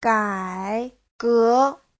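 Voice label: "gǎigé", Mandarin Chinese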